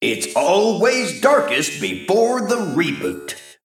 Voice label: Male Mech Behind